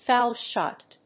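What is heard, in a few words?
"foul shot", English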